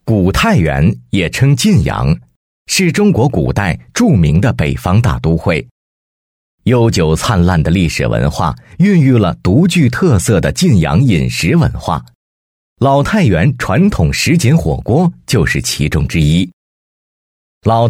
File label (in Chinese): 男 自媒体 地方美食介绍